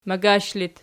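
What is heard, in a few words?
"magash" locative, Archi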